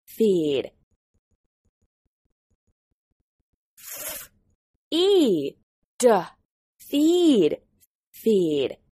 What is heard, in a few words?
"feed", English